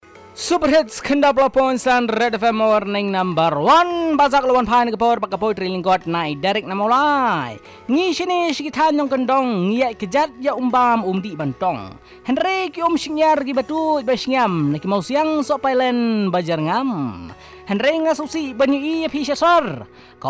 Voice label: short poem on drinking water

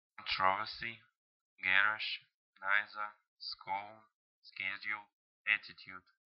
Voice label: male; Kovrov Russia 1989

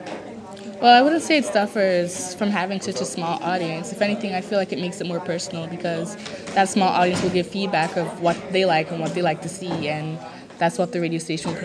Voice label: suffering ethnic radio

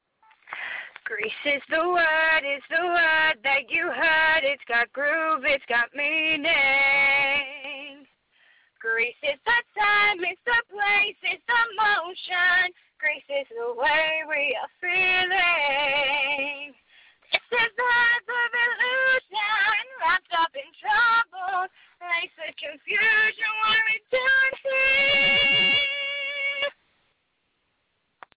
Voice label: voicemail left for me at work

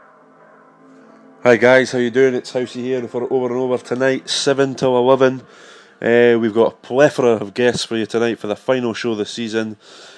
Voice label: advert

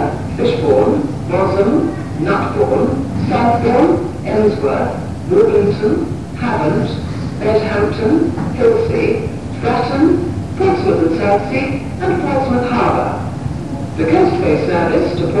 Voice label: pre recorded platform announcement

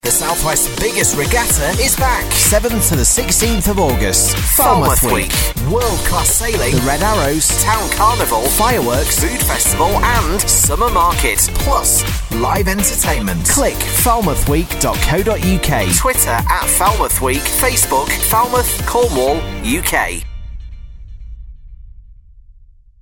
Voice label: promo trail; pirate fm

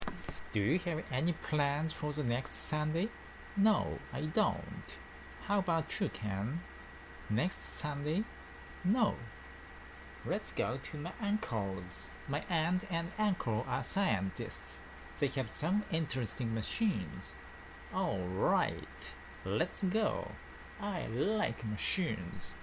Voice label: read aloud

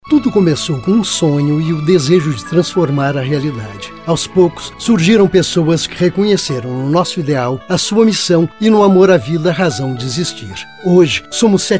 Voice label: spot; hospital anchieta 15 anos

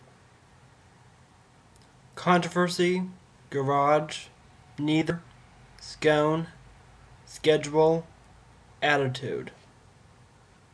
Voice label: Tallahassee Florida male

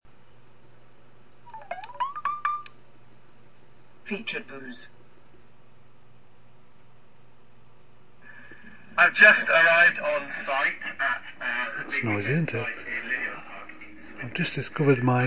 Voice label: Nabaztag reads audioboos

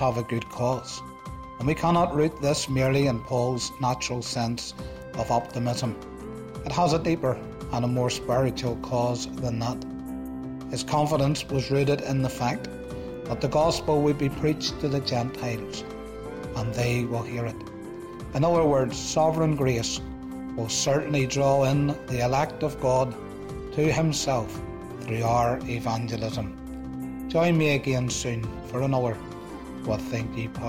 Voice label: all confident preaching

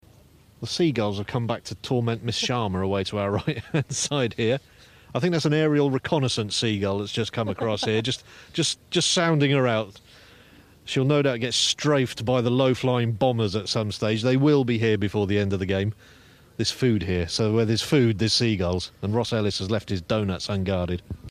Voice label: comedy clip from our cricket commentary

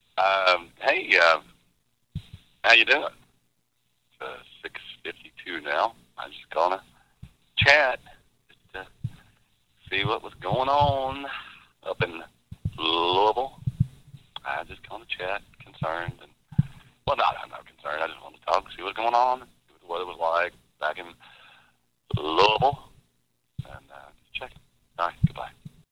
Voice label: voice mail

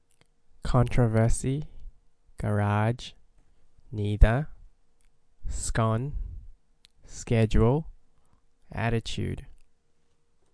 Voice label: male, Australia

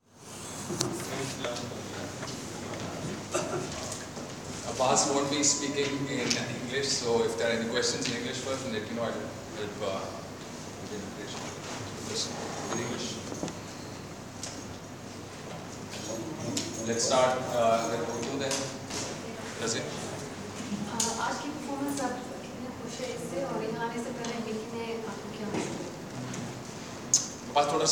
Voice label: press conference; mohammad abbas; zayed cricket stadium abu dhabi

